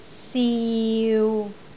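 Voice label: "siu6", Cantonese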